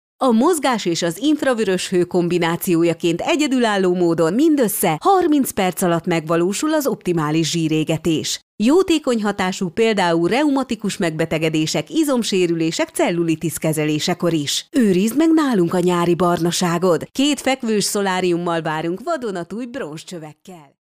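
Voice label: Hungarian, female, narration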